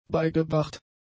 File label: "beigebracht", German; adjectives